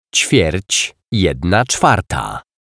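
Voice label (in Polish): "ćwierć jedna czwarta", Polish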